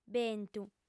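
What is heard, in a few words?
"bentu", lingua sarda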